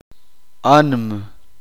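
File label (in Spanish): uspanteko corazón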